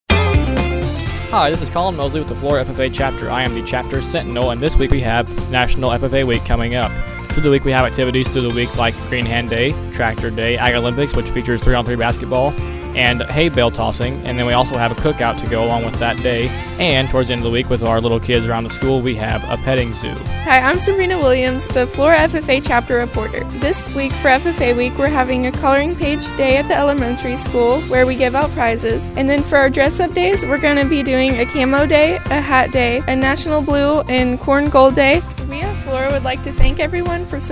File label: male female; Flora FFA Week